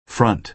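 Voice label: "front", American English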